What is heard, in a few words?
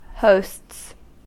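"hosts", US English; pronunciations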